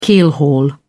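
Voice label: "keelhaul", British English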